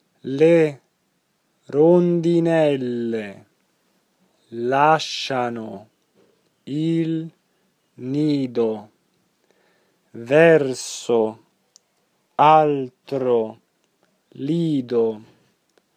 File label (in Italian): monotono